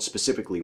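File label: "specifically", American English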